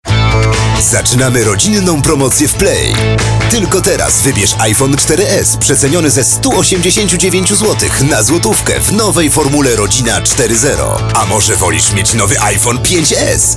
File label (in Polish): Radio; iPhone 4s i 5s; FORMUŁA RODZINA